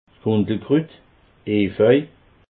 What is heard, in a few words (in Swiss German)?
"gundelkrut", alsace